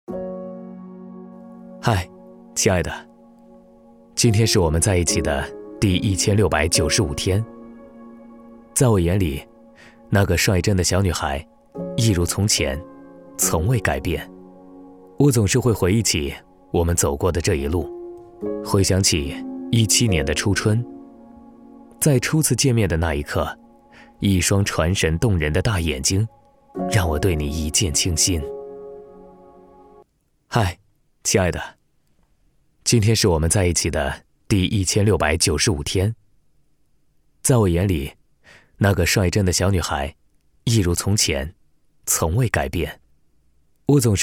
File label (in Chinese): 角色独白